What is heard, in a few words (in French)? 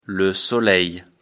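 "soleil", French; afrikalan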